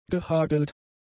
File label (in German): "gehagelt", german; adjectives